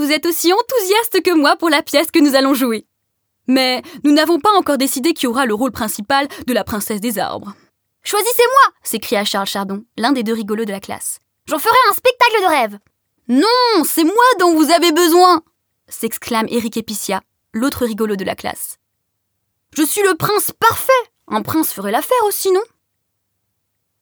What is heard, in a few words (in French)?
Livre audio enfants plusieurs personnages